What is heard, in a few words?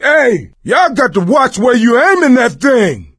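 team kill accident, voice, male; Left 4 Dead 2; coach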